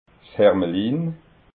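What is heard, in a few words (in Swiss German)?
"hermelin", Alsatian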